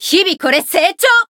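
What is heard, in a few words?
Voice growth, Japanese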